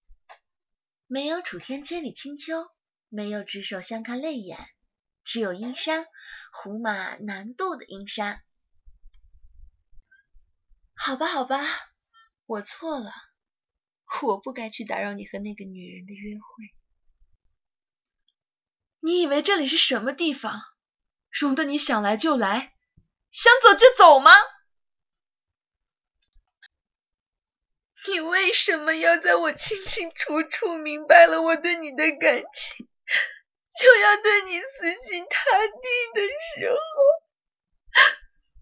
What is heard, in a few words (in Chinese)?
语气配音, 女, 青年